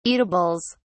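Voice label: "eatables", British English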